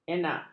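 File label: "enak", Malay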